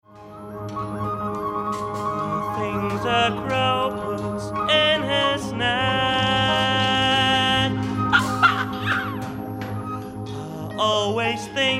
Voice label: male non-native english commercial; ideasonora

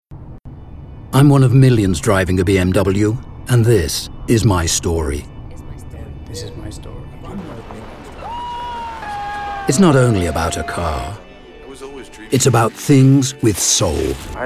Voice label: male british english car comercial

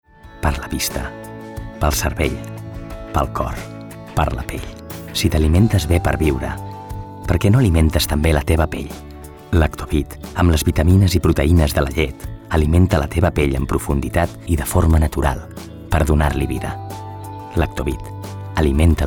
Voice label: male catalan gel comercial; ideasonora

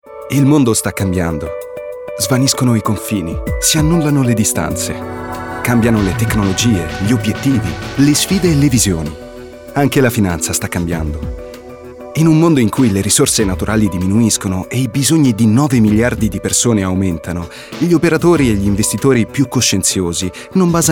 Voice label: male italian comercial; ideasonora

